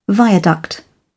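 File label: "viaduct", British English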